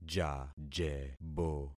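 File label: "jajebo", concatenated syllables; Affixing experiment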